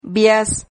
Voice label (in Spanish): "viaz", Silabas